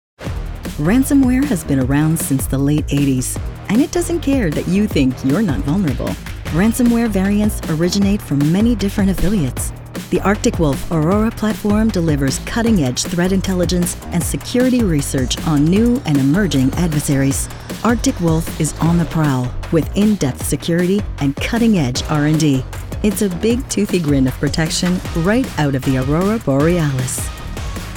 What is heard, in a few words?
corporate demo en